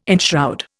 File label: "enshroud", Indian